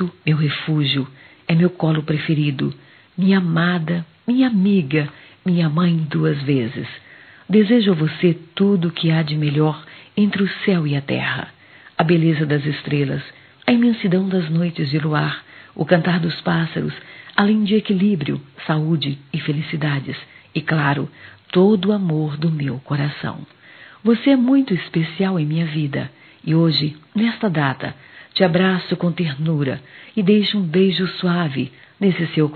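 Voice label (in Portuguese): mãe para avó, telemensagens, Dia das Mães; Telemensagens 2017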